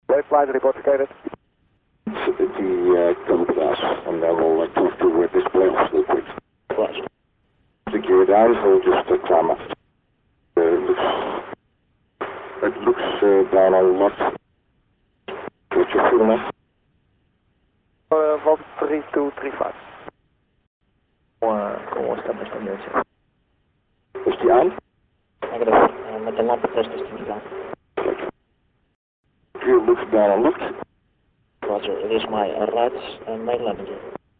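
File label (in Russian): Переговор полицейских по рации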